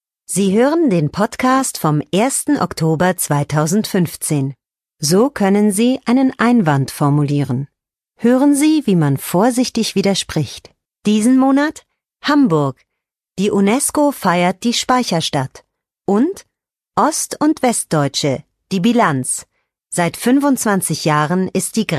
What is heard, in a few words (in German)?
Freundlich